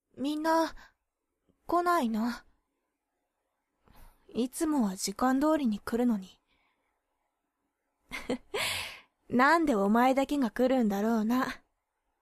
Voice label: girl; 少女; 部長さん